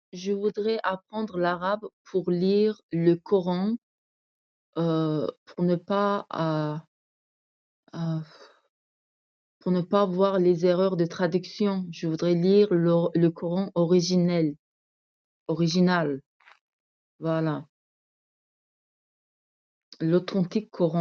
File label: voice message